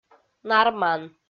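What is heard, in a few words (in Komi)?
"нарман" Komi, female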